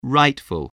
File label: "rightful", voice spelling